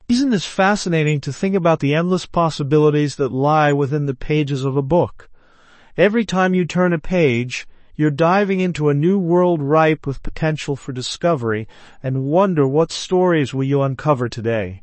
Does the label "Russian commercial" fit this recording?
No